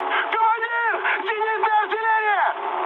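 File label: Russian damaged aa mood high, speech; War Thunder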